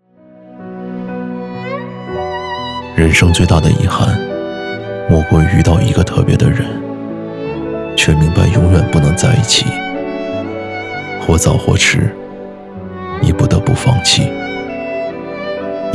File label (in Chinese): 男, 独白; 你陪我一程-我念你一生